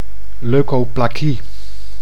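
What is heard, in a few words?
"leukoplakie", Dutch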